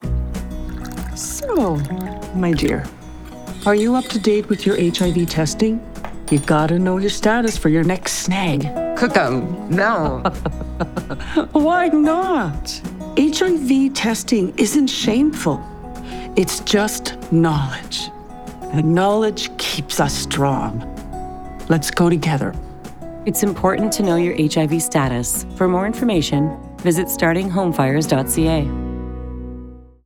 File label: ENGLISH RADIO SPOT; Kokums Kitchen; HIV Meds are Medicines Too